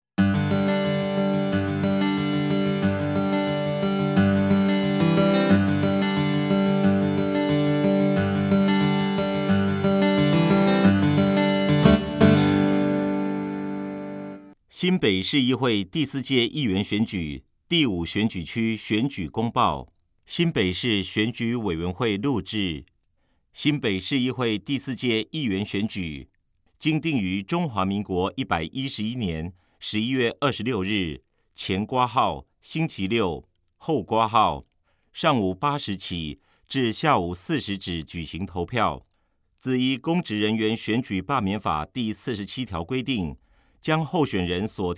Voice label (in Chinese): 國語; 新北市; 有聲公報; 市議員